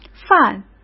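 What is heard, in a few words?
"犯", Mandarin Chinese; hsk; chinese-flashcards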